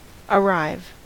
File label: "arrive", us